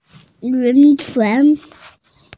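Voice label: "lun chuan" Chinese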